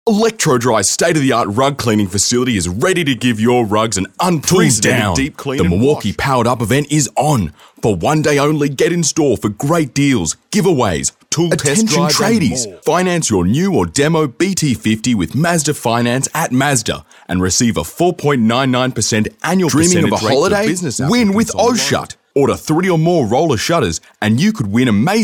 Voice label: Hard Sell